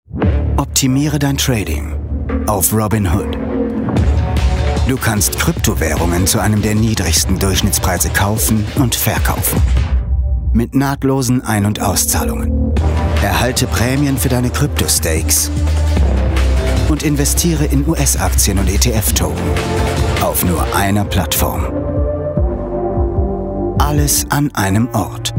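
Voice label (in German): Werbung, professioneller Sprecher; Robinhood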